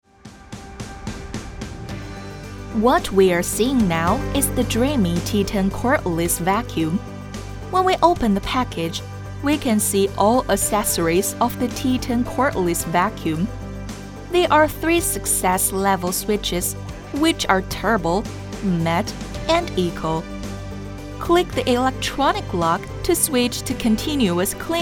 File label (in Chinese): 美式女英文解说吸尘器